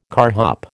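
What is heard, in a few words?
"carhop", American English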